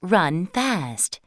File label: english run fast; samsung YP-U5; Audio User Interface